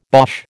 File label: "Boche", American